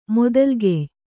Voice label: "ಮೊದಲ್ಗೆಯ್", Kannada

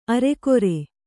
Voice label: "ಅರಗುರಿ", kannada

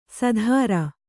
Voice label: "ಸೂದ್ರ", kannada

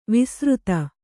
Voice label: "ವಿಸೃತ", Kannada

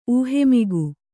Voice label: "ಊಹೆಮಿಗು", Kannada

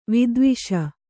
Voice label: "ವಿದ್ವೇಷಿ", Kannada

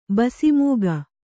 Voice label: "ಬಸಿಮೂಗ", Kannada

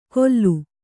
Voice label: "ಕೊಲ್ಲು", Kannada

